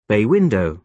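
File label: "bay window", British English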